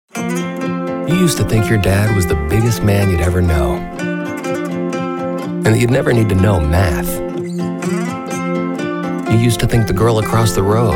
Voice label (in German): TV Werbespots; Jugendfrei; NATIVE PROFISPRECHER